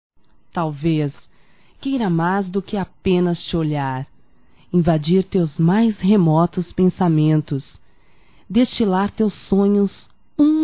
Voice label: ROMANTICA(F), Românticas, fem

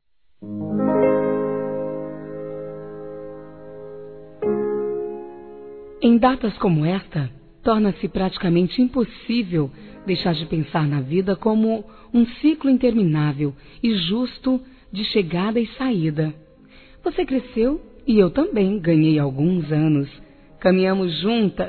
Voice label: NETA MADURA, Telemensagens Aniversários; Aniv Familiar

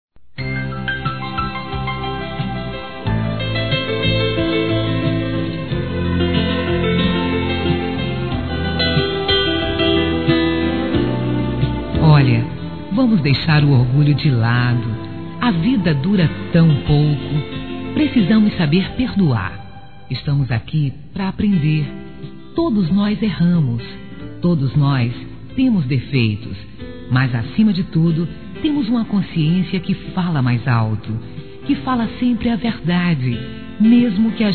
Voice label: nova chance amizade f, Telemensagens; Desculpas; fem; Telemensagens 2017

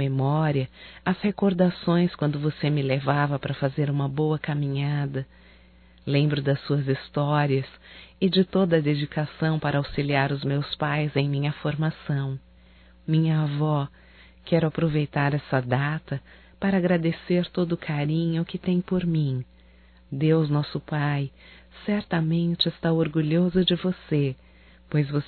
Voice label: NATAL AVÓ; Natal e ano novo; fem; Telemensagens 2017

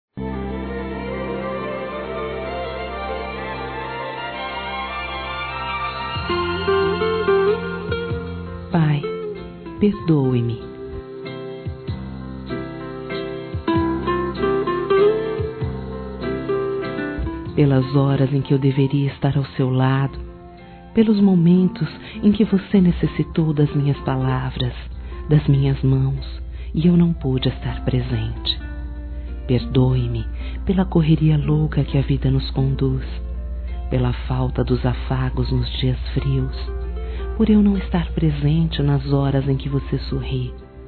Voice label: FILHO QUE NÃO TEM TEMPO F, Telemensagens Dia dos Pais; Telemensagens 2017

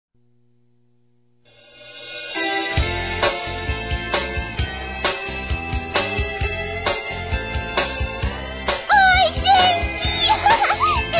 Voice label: Aniversário Neto Masculino; Telemensagens 2017; Aniversários; Aniversário Infantil

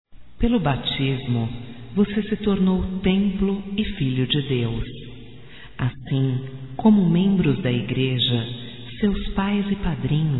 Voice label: voz - Mensagem de Batizado, fem; Telemensagens 2017

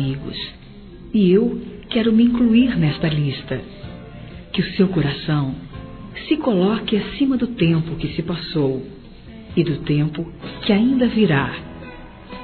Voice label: ANIV. ATRASADO F; Aniversários; Aniversários diversos; fem

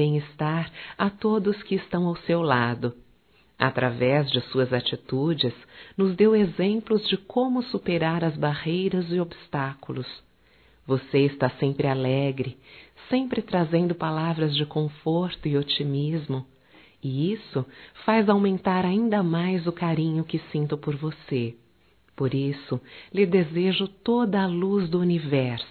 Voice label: Dia das Mães Nora Feminino, Telemensagens; Telemensagens 2017

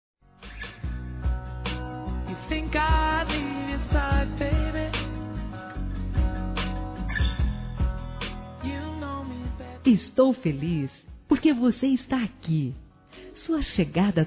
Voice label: BOAS VINDAS F; Telemensagens; Despedida Boas Vindas; Telemensagens 2017

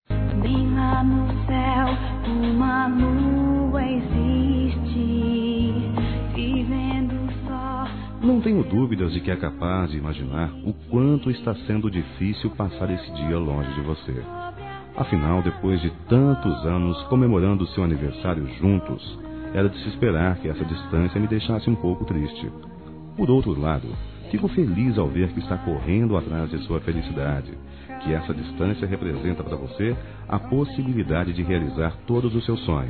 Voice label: FILHA DISTANTE M

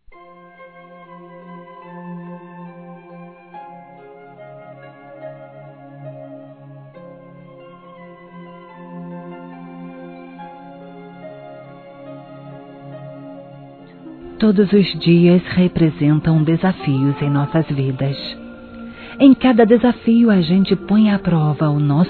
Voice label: Aniversário Chefe Feminino, Telemensagens Empresarial; Telemensagens 2017

